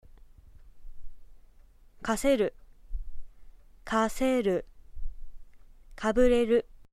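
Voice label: "kabureru", Aizu dialect; aizuben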